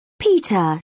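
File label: "peter", British English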